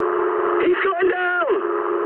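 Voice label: aircraft destroyed mood high, speech English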